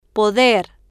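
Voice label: "poder", Spanish, female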